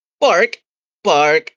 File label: voice message